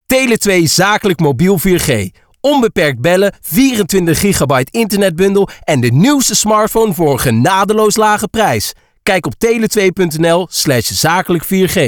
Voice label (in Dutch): Enthousiast; Tele2